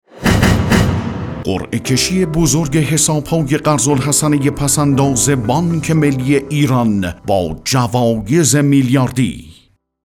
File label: narrator